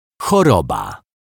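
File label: "choroba", Polish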